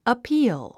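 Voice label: "appeal", English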